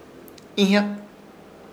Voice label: "Ihyak", Ishir Chamacoco; pluma